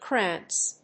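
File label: "crance", English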